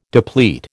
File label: "deplete", American